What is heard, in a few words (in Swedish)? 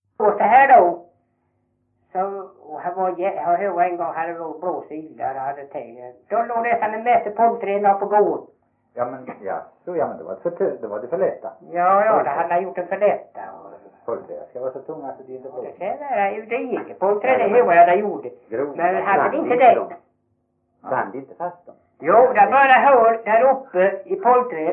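Veddige Halland, Svenska sagor dialekter; Folkminnen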